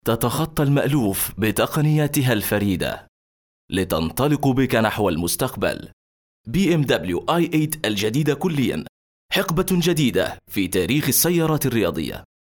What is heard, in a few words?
classic fusha commercial